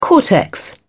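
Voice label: "cortex", British English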